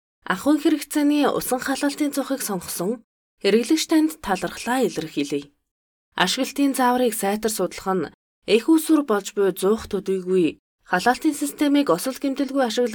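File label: Mongolian, female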